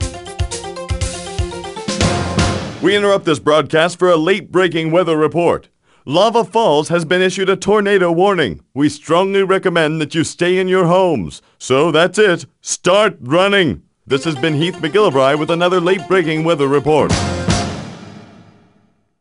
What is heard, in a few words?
News Tornado